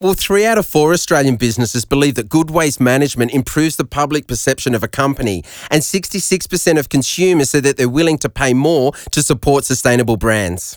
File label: studio grab; business recycling 2017